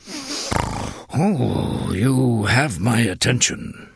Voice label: Tuskarr Male Greeting; Warrior

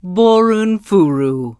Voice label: NPC Tuskarr Female Farewell; Warrior

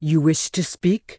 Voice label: Tauren Female Official NPC Greeting

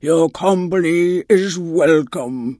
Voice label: Tuskarr Female Greeting; Magic User

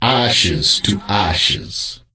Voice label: NPC Death Knight Troll Male Farewell

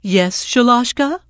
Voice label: NPC Tuskarr Female Greeting; Warrior

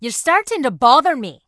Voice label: Dwarf Female Guard NPC Pissed

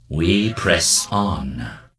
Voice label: Death Knight Male Low Greeting

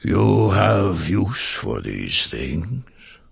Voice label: Tuskarr Male Vendor; Warrior

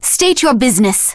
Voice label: Blood Elf Female Standard Greeting

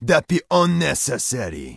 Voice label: Troll Male Shaman NPC Pissed

